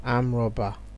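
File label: "arm robber", Nigerian Pidgin, male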